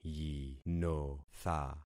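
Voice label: "yinotha", concatenated syllables; Affixing experiment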